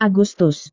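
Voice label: "agustus", Indonesian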